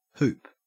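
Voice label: "hoop", Australian English; pronunciations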